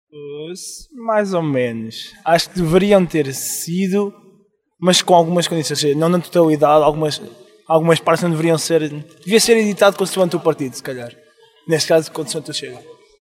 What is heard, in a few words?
Vox pop